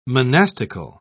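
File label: "monastical", British English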